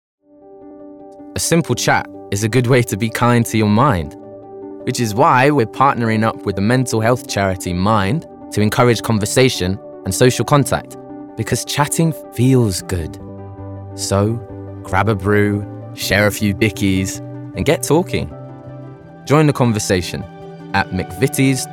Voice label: Commercial